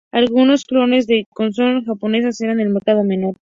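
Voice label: "clones", Spanish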